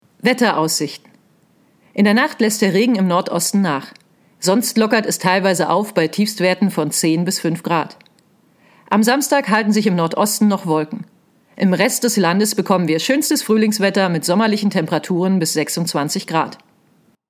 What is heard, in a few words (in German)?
Sprecherin Wetter